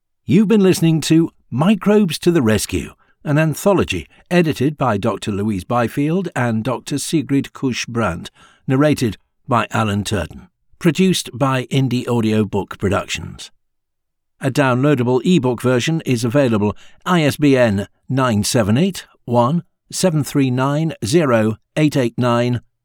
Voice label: Closing Credits, Audiobook